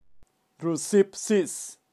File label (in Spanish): "rusip sis", kaqchikel, pronunciacion de animales